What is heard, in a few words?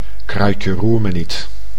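"kruidje-roer-me-niet", Dutch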